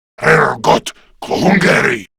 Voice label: Player Spotted, Male; Warframe; Grineer Male; Battle Barks